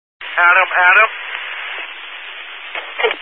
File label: Highway Patrol, scanner; DPS